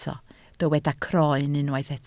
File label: "croen", Welsh